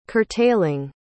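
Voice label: "curtailing", English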